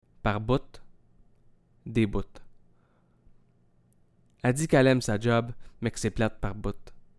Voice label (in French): des bout, québécois